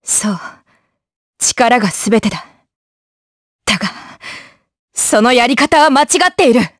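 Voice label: vox dialogue, voices, Japanese; Seria; kingsraid audio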